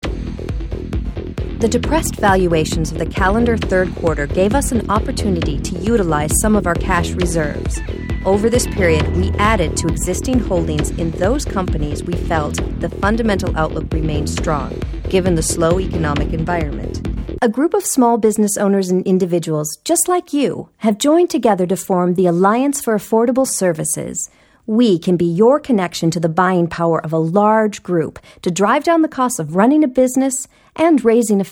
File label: narrative